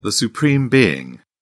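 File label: "supreme being", British English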